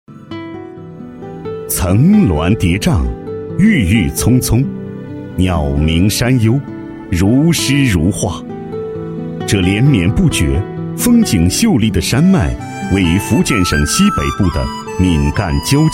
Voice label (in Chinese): 男 文化传承 解说配音; 池氏关刀文武灯